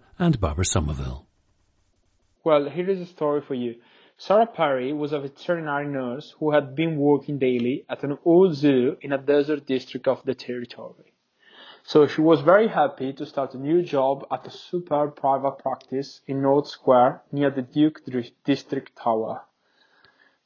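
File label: italy, International Dialects of English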